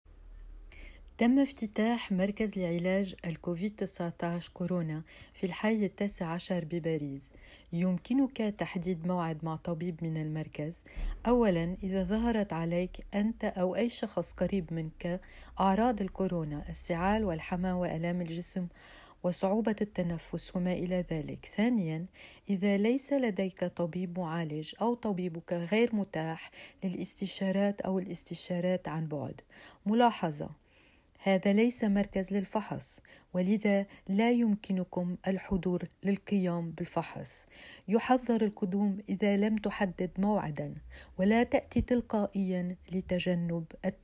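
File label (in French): Arabe covid, informations multilingues; Message en Arabe; Les messages de prévention multilingues; Paris19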